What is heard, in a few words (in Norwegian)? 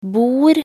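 "bord", Norwegian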